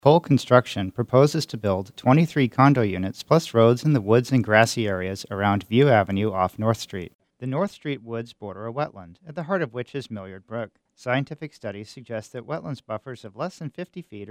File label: spot vox